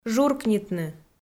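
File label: "журкнитны", Komi-Zyrian, female; audio dict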